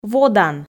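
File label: "водан", Komi, female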